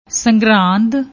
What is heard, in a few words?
"sangrand", Punjabi; ਸੰਗਰਾਂਦ; سنگراند; noun, feminine